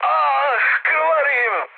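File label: Serbian damaged pilot mood high, speech; War Thunder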